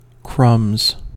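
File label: "crumbs", American English; pronunciations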